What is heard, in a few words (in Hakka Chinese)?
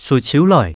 "收揫來", 客語